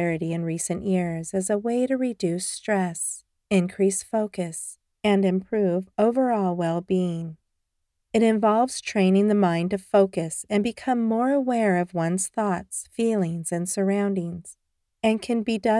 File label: Meditation